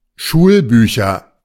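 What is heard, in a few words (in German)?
"Schulbücher", German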